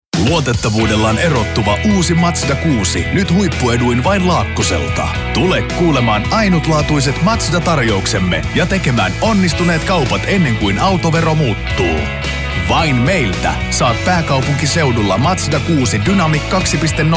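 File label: Finnish commercial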